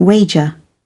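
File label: "wager", British English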